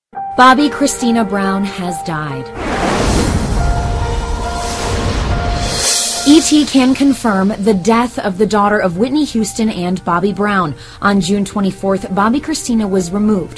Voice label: interview audio clips; Bobbi Kristina dead passed away

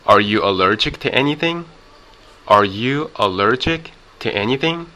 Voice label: "are you allergic to anything", pronunciation

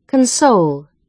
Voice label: "console", American English pronunciation verb

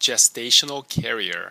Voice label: "gestational carrier", American pronunciation

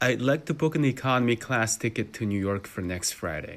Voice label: book a ticket to NYC, English audio clip

